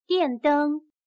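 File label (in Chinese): "电灯", Chinese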